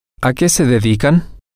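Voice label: what do you all they do for a living, spanish, male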